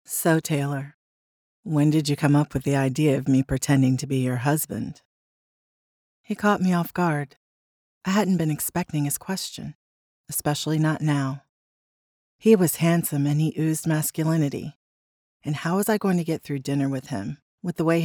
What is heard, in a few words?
Romance M F Dialogue